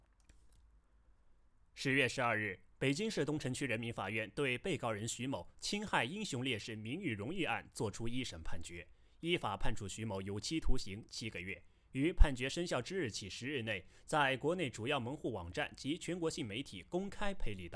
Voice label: 新闻一

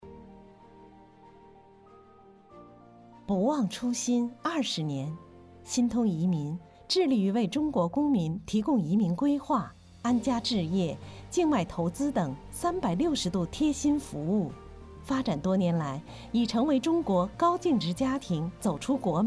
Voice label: 企业 宣传片 配音

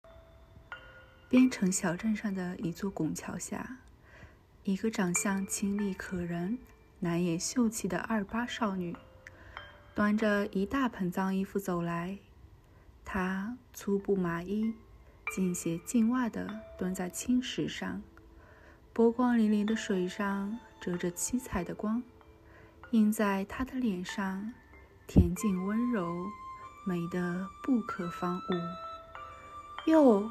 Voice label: 有声书样音

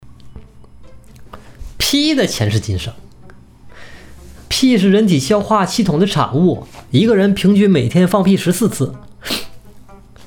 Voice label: 文案配音

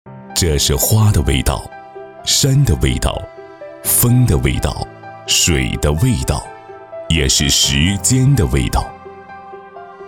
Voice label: 产宣 香料; 曾田香料

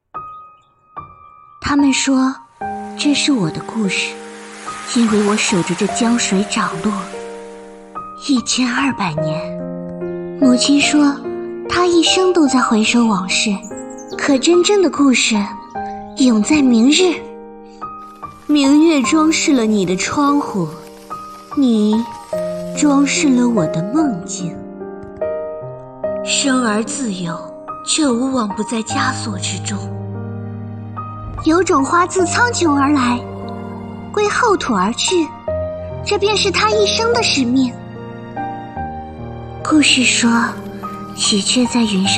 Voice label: 女; 王者女英雄

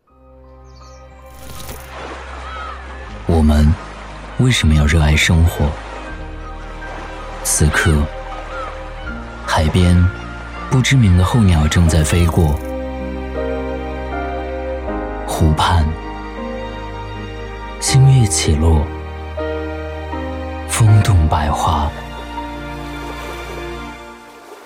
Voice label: 质感旁白; 海湾国际

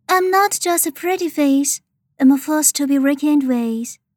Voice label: 冷艳美女

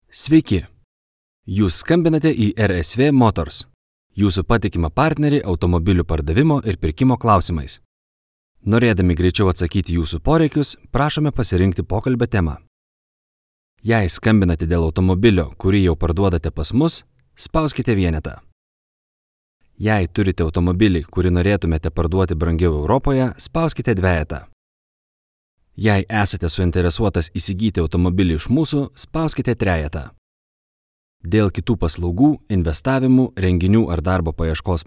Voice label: 立陶宛语男

